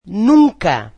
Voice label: portuguese never